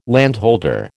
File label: "landholder", American